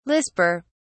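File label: "lisper", British English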